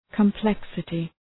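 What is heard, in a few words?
"complexity", English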